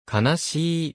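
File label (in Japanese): "悲しい", Japanese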